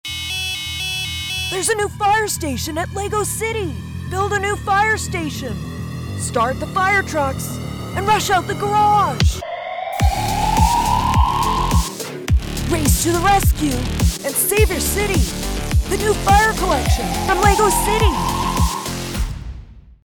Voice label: Boy Voice Firestation Boy aged 10-12; LegoCity